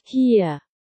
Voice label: "here", dog commands in german